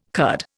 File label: "Kurd", Indian